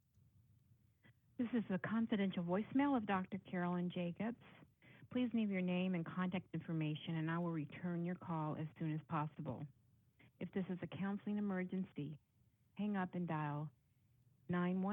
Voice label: Answering Machine